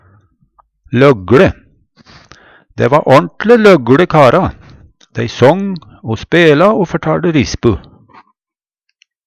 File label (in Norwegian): logLe, Numedalsmål; Adjektiv